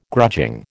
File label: "grudging", American